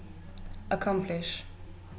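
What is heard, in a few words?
"accomplish", Dutch, female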